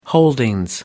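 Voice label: "holdings", British English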